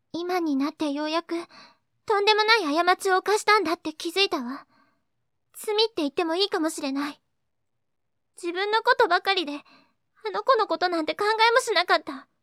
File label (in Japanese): せつない感じの長台詞; 女の子